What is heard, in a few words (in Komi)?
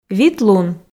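"вит лун", Komi, female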